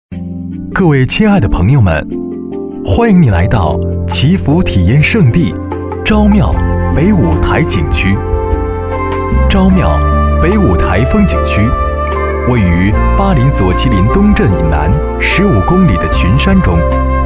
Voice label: 解说词

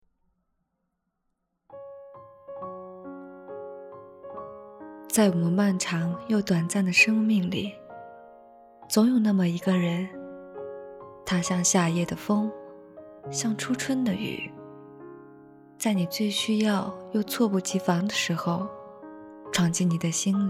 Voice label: 情感独白

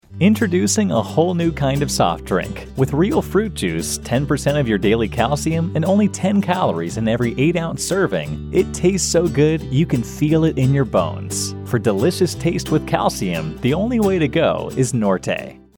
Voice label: 男美式英文广告饮料广告

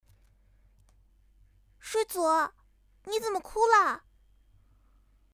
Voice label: 萝莉

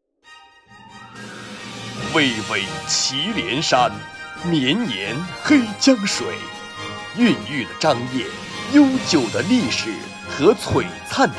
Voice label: 宣传片配音; 张掖

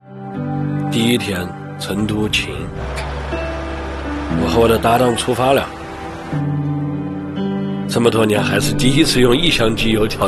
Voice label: 四川话素人内心独白

